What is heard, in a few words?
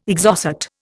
"Exocet", Indian